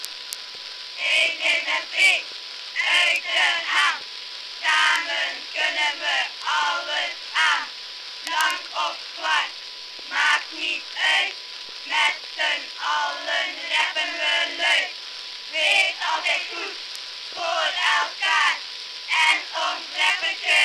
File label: dutch; arissat